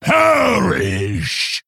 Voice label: Male Fel Orc Attack